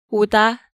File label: "Oda", Korean